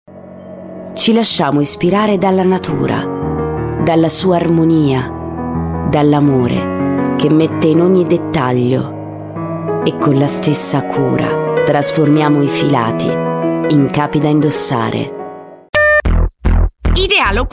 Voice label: italian voice over female commercial; İtalyanca Seslendirme